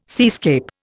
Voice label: "seascape", Indian